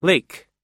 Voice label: "lake", us